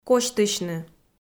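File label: "косьтысьны", Komi, female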